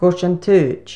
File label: "gortantaoid", Placenames, islay